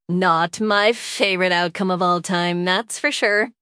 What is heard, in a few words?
upset; fertig classic games